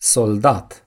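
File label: "soldier", Romanian; gcompris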